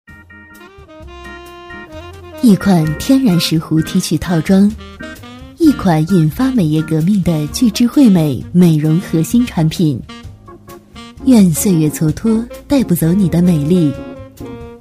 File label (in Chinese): 女 美容产品